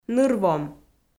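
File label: "ныр-вом", Komi, female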